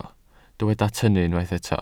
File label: "tynnu", Welsh